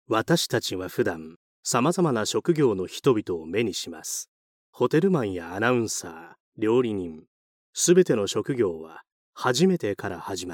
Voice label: male